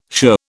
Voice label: "shirr", British